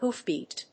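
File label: "hoofbeat", English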